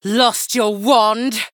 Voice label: Female Blood Elf Attack